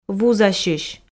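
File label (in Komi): "вузасьысь", Komi-Zyrian, female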